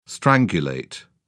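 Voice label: "strangulate", English, British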